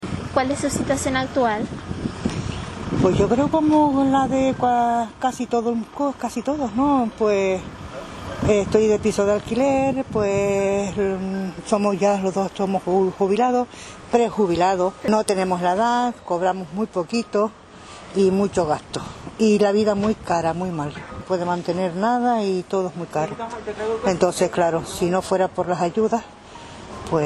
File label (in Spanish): Audio testimonios; ong sonrisas canarias